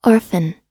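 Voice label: "orphan", US English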